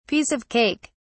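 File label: "piece of cake", British English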